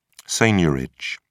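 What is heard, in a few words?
"seigniorage", British English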